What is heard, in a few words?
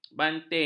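"banting", Malay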